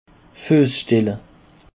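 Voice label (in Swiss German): "fuesstelle", Alsatian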